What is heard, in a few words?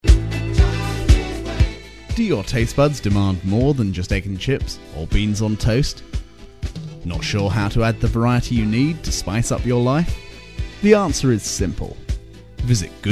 ad; bfm RSL 1994; good taste deli